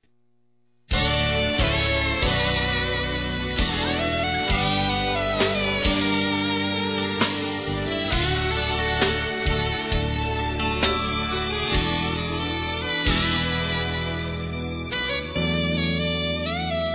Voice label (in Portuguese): Aniversário Filho Evangelico Feminino; Aniversários